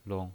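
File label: "long", pinyin, Mandarin Chinese, neutral tone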